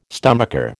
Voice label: "stomacher", American